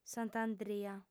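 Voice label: "santandria", Sardinian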